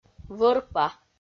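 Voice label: "вӧрпа", Komi, female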